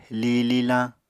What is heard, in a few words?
"li lilañ", Apatani